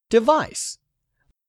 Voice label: "DEVICE", English; 3000 words